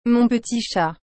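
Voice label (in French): "mon petit chat", French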